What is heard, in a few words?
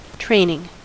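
"training", US English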